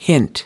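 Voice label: "hint", American English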